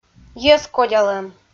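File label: "йӧзкодялӧм", Komi, female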